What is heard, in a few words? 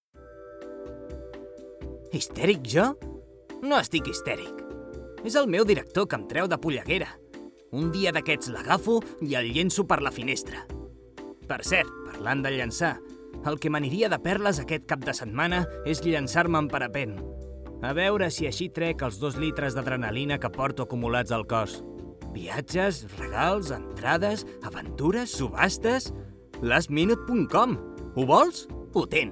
male catalan travel comercial; ideasonora